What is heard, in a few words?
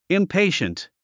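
"impatient", English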